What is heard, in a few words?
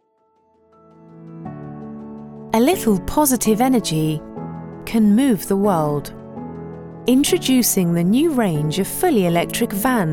CORPORATE